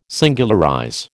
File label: "singularize", American